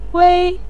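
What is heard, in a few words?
"wei" neutral tone, Mandarin Chinese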